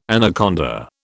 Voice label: "anaconda", British